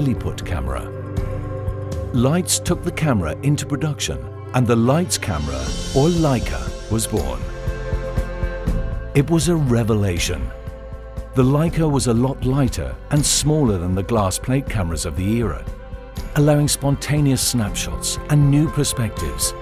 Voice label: male english image film; leica cameras; Devine Voices